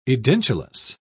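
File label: "edentulous", British English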